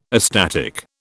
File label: "astatic", British